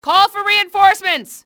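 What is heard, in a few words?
call for reinforcements, english female; XBOX; enemy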